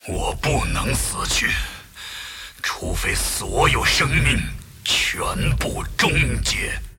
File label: move, zh